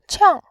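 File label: "qiang", Mandarin, tone 4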